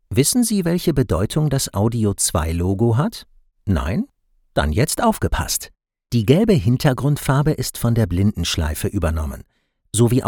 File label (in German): Hochdeutsch, sprechproben